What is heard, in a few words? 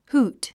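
"hoot", English; 発音 húːt フート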